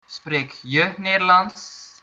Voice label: do you speak, dutch